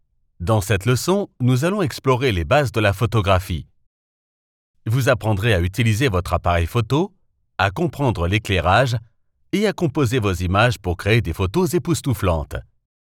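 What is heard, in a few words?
French male elearning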